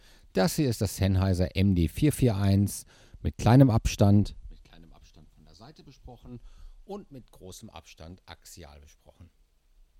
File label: Sennheiser MD441, interview microphone; dpa dfacto II